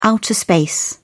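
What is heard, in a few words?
"outer space", British English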